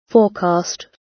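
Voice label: "forecast", British English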